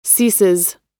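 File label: "ceases", American English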